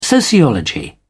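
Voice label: "sociology", British English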